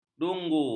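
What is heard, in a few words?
"dungu", Malay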